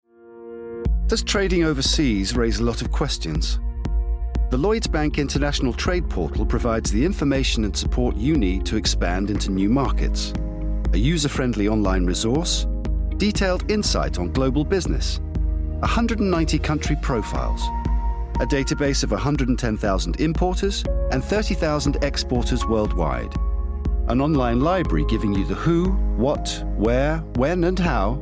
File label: Corporate Mix; Lloyds